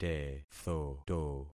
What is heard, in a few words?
"tethoto", concat syllables; Affixing experiment